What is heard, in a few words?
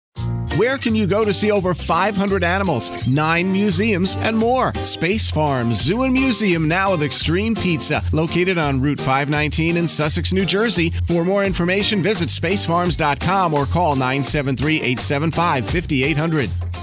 spot; Space Farms; 500 animals